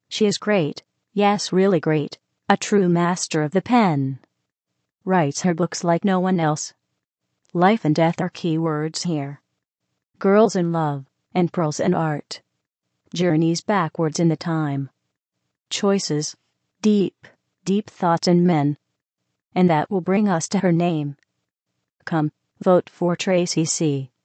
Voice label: robot she is great really great; Robotic or Stuttery or Spooky

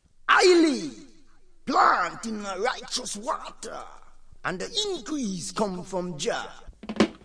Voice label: rasta increase come from ja, Rastafarian

